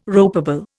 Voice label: "ropeable", Indian